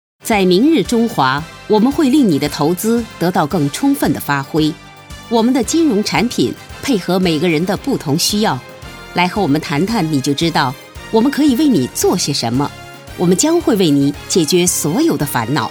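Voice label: Mandarin, TV commercial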